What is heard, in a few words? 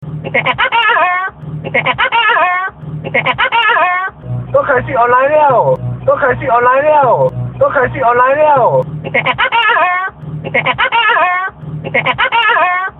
Funny Hokkien Msg